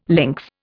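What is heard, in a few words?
"lynx", Indian English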